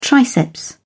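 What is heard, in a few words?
"triceps", British English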